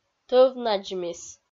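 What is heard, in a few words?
"тӧв надзмис", Komi, female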